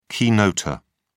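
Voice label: "keynoter", British English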